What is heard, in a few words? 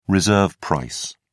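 "reserve price", British English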